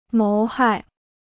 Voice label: "谋害", Chinese